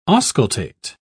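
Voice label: "auscultate", British English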